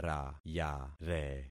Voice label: "rayare", concat syllables; Affixing experiment